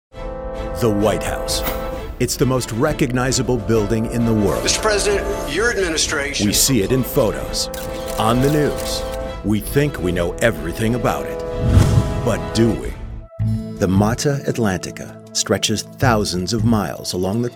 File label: Narration